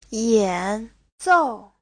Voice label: "yan3 zou4", Chinese; 演奏; diễn tấu, biểu diễn